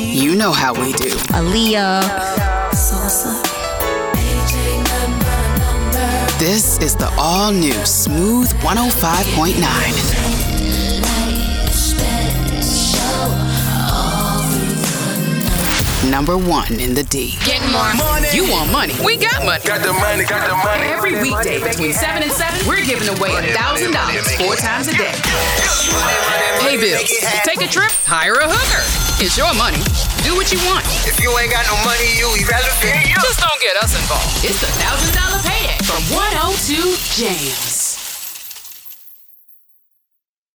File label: Hip Hop Old School R-B Imaging, voiceover